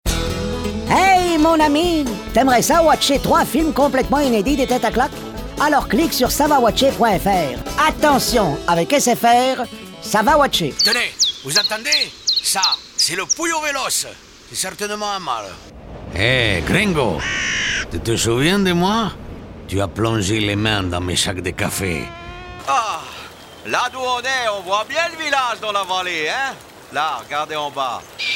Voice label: accents

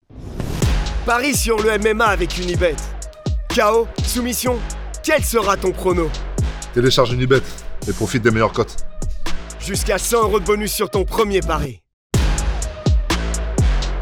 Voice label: PREROLL voix off; UNIBET; YT

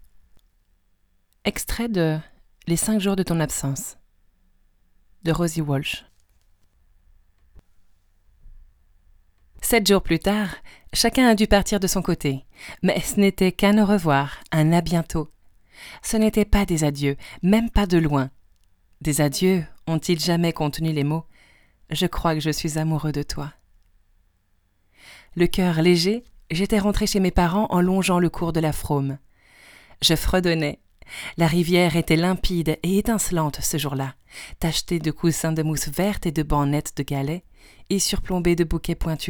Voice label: Livre Audio; Les jours de ton absence

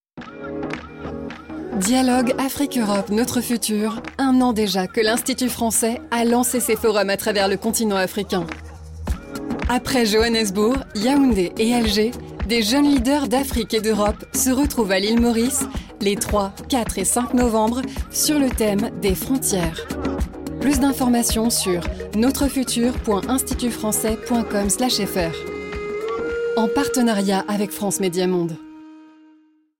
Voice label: habillage; FORUMS NOTRE FUTUR

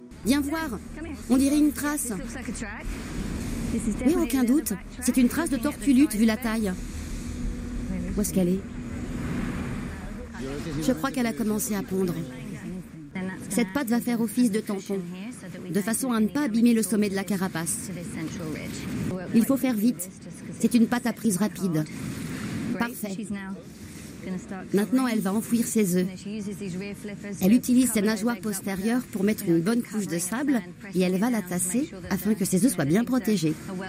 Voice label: voice over passerelle des animaux migrateurs; panama

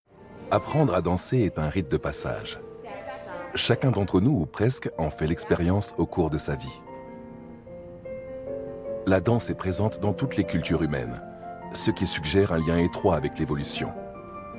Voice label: narration; Les vertus de la Danse; Arte

